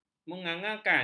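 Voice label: "mengangkang", Malay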